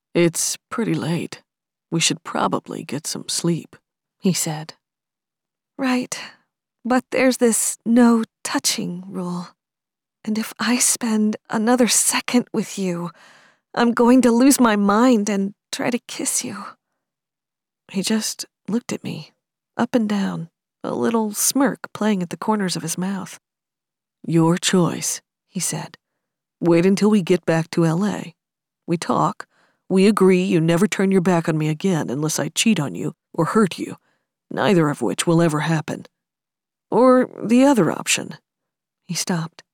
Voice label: Romance, audiobook